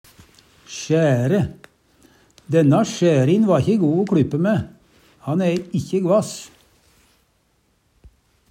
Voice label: sjære, Numedalsmål; Denna sjærin va kje go o kLyppe mæ. Han æ ikkje gvass.

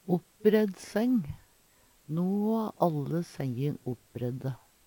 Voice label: oppbredd seng, Numedalsmål; No va alle senjan oppbredde.; Hushald, mat, drikke

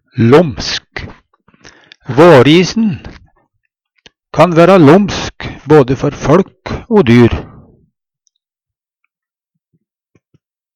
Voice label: lomsk, Numedalsmål; Adjektiv

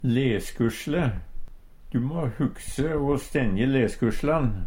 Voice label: leskusjle, Numedalsmål; Substantiv hankjønn

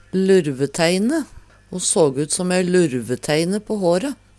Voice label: lurveteine, Numedalsmål; Substantiv hokjønn

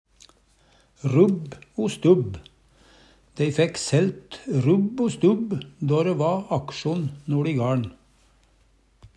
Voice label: "rubb o stubb", Numedalsmål; laust og fast

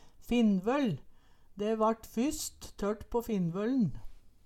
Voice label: finnvøll, Numedalsmål; e vart fysst tørt på finnvøllen

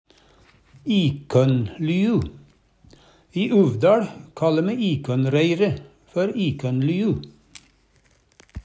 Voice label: ikønnlyu, Numedalsmål

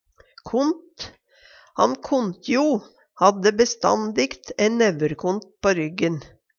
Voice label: kont, Numedalsmål; Substantiv hankjønn; Personleg utstyr, klede, sko; Handverk (metall, tre, lær)

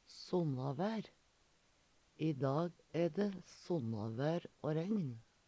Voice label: sonnavaer, Numedalsmål; Substantiv inkjekjønn; Vêr og føre

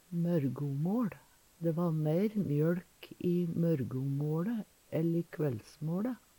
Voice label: "mørgomåL", Numedalsmål; Substantiv inkjekjønn

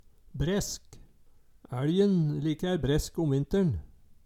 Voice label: "bresk", Numedalsmål

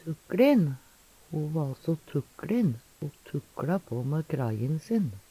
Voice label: "tukLin", Numedalsmål; Adjektiv

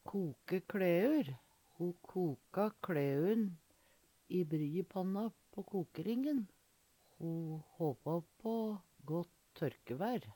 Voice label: "koke kLæur", Numedalsmål

